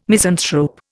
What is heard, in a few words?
"misanthrope", Indian